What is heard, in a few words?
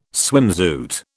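"swimsuit", British English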